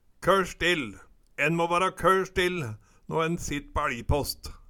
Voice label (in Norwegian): kørr still, Numedalsmål